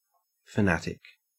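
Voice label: "fanatic", Australian English; pronunciations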